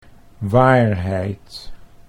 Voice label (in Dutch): "waarheid", Dutch